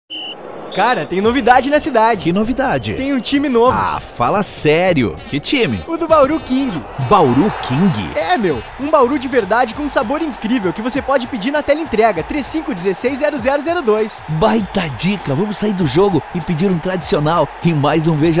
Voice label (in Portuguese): Spot